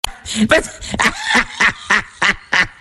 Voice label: voice, laugh epic; dota 2; slark